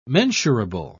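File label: "mensurable", British English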